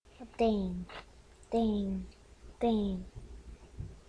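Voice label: "ding", spoken word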